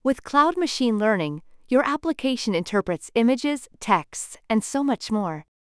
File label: en us; journey f